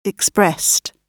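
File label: "expressed", British English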